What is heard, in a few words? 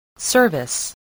"service", spelling